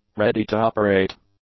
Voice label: "ready-to-operate", English; adjectives